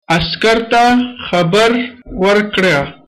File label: police, pashto